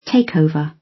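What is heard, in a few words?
"takeover" British; Business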